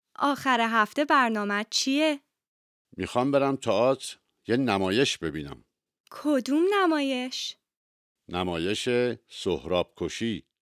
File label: Dialogue lesson Farsi